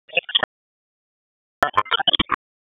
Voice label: Police Tac, p25